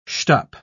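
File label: "shtup", British English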